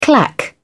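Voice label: "clack", British English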